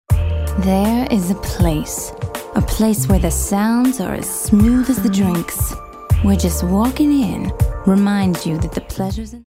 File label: cool jazzy